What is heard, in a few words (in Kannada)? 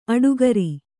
"ಅಡಿಗಾರ", Kannada